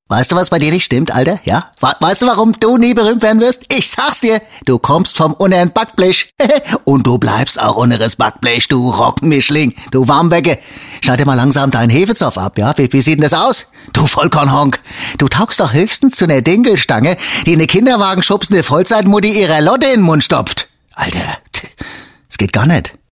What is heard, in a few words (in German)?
hessisch